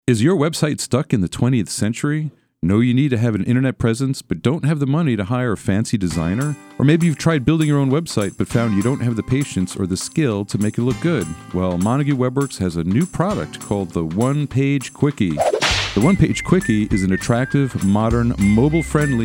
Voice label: Radio Ad; Montague WebWorks